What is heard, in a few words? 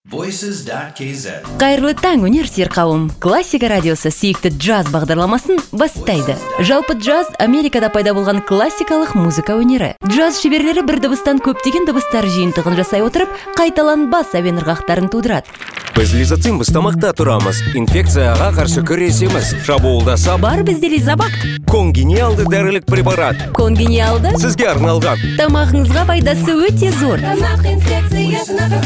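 Kazakh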